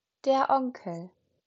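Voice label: "Onkel", German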